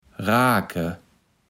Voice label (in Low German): "raake", Platt